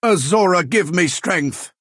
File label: Dunmer male implore